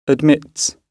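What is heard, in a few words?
"admits", British English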